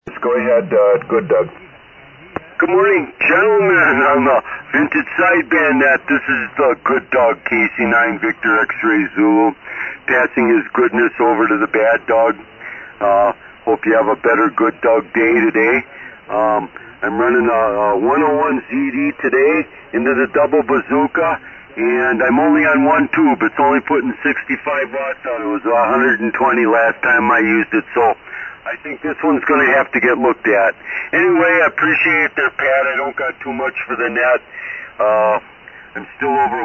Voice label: Yaesu FT-101ZD & D-104 Mic; Hustisford, Wi.